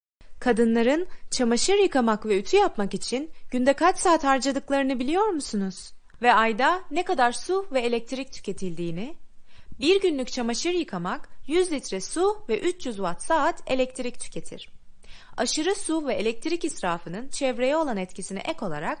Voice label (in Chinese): 土耳其语女; 外语; 小语种